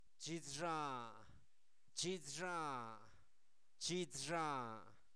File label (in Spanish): glúteo, Zapoteco, santo domingo albarradas; cuerpo; INALI